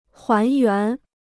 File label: "还原", Chinese